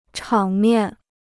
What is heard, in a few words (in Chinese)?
"场面", Chinese